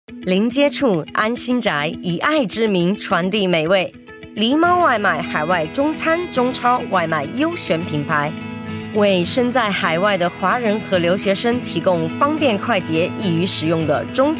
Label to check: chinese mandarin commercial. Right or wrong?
Right